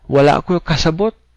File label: no understand, cebuano